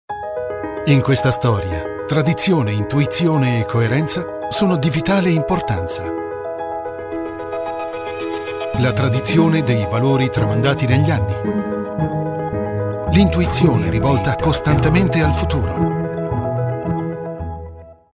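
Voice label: Italian, male, corporate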